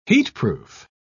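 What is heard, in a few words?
"heatproof", British English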